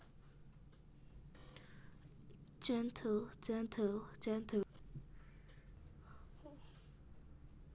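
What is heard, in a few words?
"gentle", spoken word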